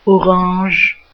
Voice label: orange, oberelsässisch; Milhüsa